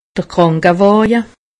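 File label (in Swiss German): "krankewaawe", Alsatian; medecine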